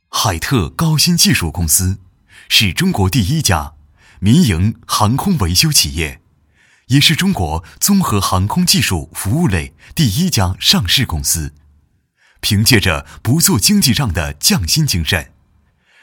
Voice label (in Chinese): 专题 企业宣传介绍, 国语男声配音; 海特高新技术公司